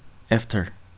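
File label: "after", Korean, male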